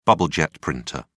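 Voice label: "bubble-jet printer", English, British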